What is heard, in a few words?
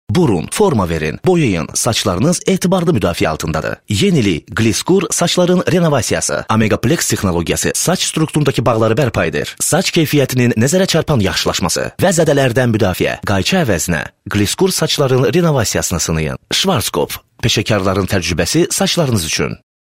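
Azerbaijani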